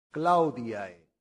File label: "claudiae", latin; santillana